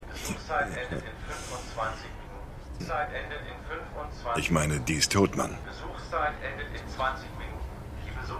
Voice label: Gefaengnisdurchsage; The Wire

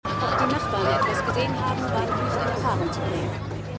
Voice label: Reporterin; Bosch

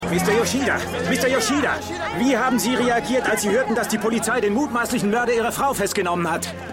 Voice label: Reporter, Stimmproben

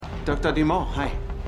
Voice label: Gruessender Mann; Marvels The Punisher

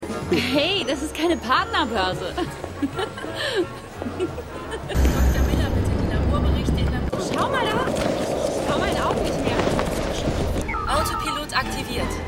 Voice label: Junge Frau Durchsage Frau Auf Strasse Autopilot; Stimmproben; Eureka

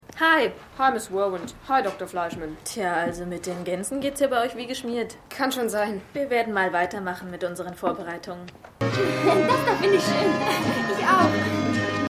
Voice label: Maedchen; AusgerechnetAlaska

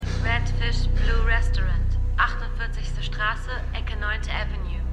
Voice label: Handy Stimme

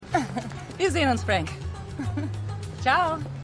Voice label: Frau, Stimmproben; Shameless

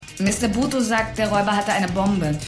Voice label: Yoruba Dolmetscherin; The Unusuals

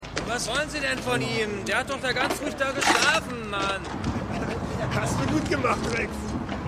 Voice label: Obdachloser; The Wire

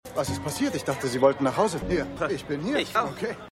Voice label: Kaeseverkaeufer, Stimmproben; The Flight Attendant

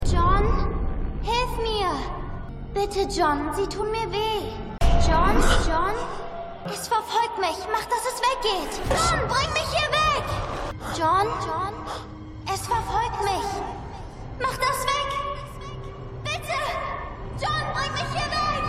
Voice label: Kind, Stimmproben

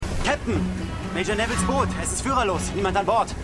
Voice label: Mann Auf Boot, Stimmproben; Revolution

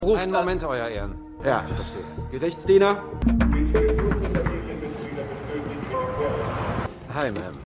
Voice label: Deputy Durchsage M Angestellter; Stimmproben

